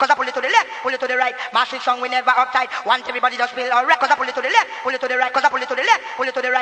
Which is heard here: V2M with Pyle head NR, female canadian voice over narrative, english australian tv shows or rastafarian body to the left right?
rastafarian body to the left right